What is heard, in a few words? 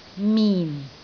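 "min4", Cantonese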